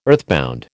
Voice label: "earthbound", American

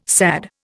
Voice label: "SAD", Indian

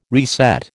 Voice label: "reset", American

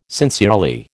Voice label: "sincerely", American

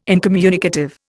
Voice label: "incommunicative", Indian

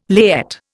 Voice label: "layette", Indian

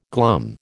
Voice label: "glum", American

